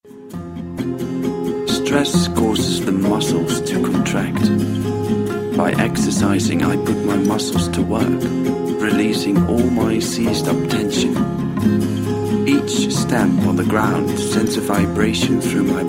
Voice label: male english with dutch accent

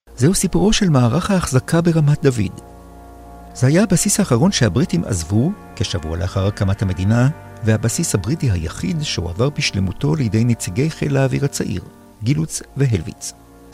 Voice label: male hebrew documentary

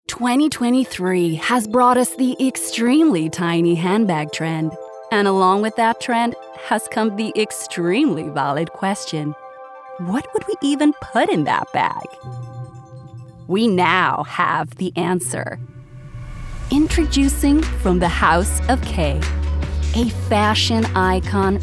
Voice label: female english usa accent commercial; knorr

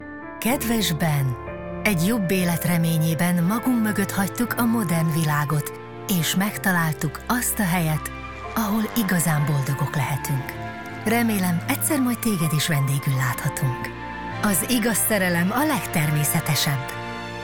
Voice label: female Hungarian documentary

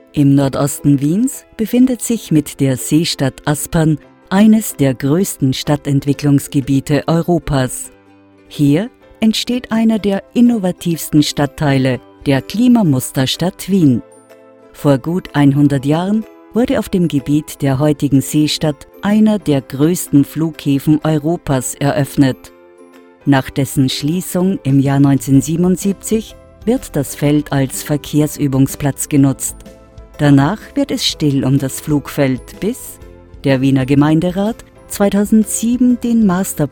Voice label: female austrian narration; aspern seestadt

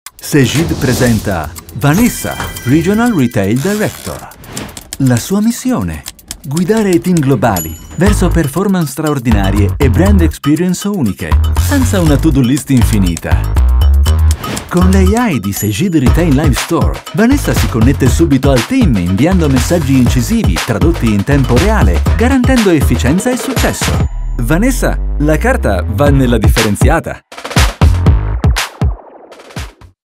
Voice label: male italian tv commercial; Devine Voices

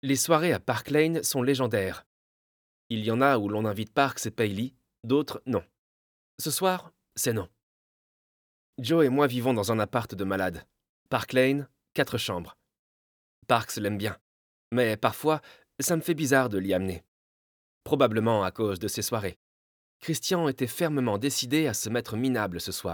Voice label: male french young voice audiobook narration; magnolia

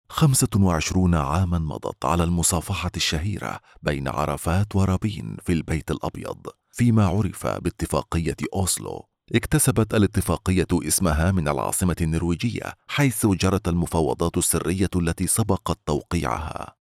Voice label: male, Arabic, Modern Standard Arabic script

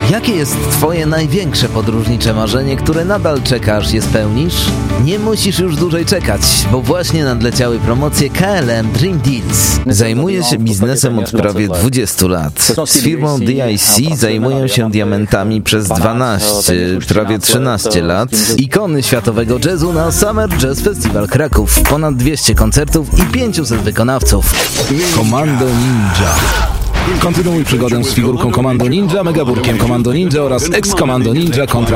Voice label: male polish

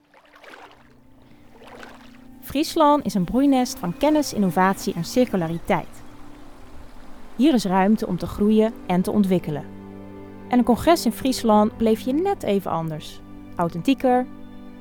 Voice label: female dutch congress corporate; friesland

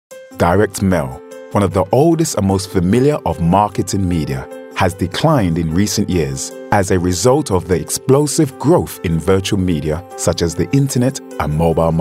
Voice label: male english corporate; royal mail

